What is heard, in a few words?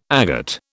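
"agate", British English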